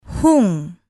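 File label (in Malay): "hung", Malay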